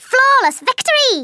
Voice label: "FLAWLESS", Scouser